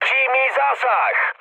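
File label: bomb success, Czech, mood high; speech; War Thunder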